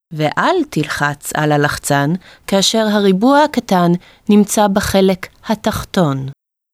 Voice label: Ignore Visual Nontarget, hebrew